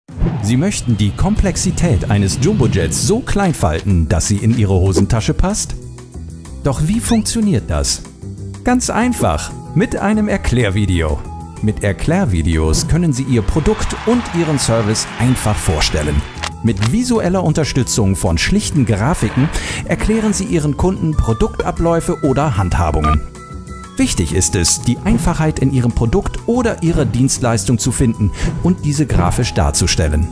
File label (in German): erklaervideos; clipvilla